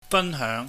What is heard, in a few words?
"fanheung", Cantonese